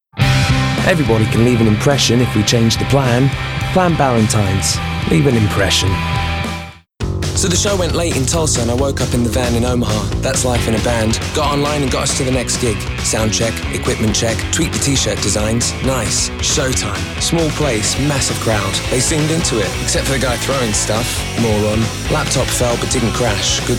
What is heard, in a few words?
languages male british